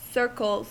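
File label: "circles", English, US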